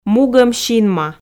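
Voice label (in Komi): "мугом синма", Komi, female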